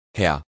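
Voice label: "herr", German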